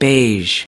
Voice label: "beige", us